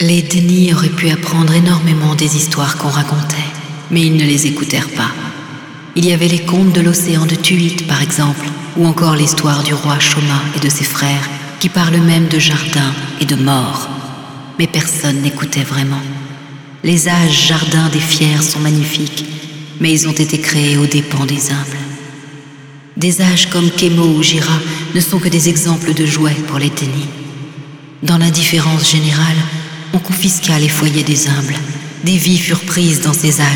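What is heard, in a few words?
Speech french; bhro Yeesha Grdn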